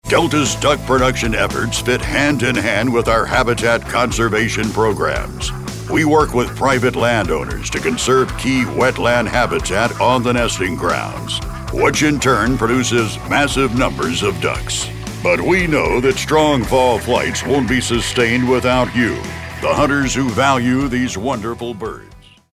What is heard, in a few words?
narrative